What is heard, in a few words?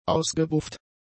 "ausgebufft", German; adjectives